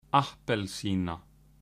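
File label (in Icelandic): "appelsína", Icelandic; matarhefti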